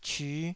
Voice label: "cyu5", Taiwanese syllable